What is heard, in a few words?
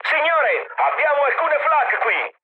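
Italian damaged aa mood med, speech; War Thunder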